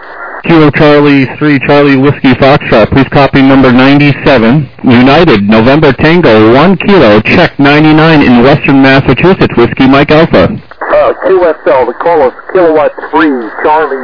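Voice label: lower sideband 7MHz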